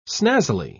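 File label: "snazzily", British English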